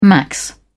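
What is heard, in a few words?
"max", British English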